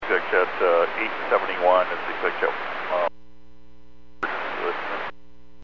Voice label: Aviation 121.5 Emergency